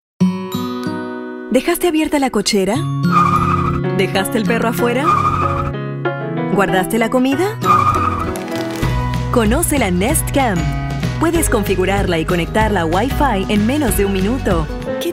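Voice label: ESLA Neutral, Latin American Spanish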